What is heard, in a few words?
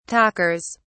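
"talkers", British English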